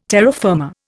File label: "terra firma", Indian